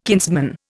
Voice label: "kinsman", Indian